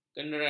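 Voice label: "kenerak", Malay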